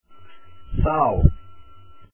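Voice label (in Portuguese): "sal" Portuguese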